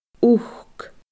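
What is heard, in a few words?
"uchd", Scottish Gaelic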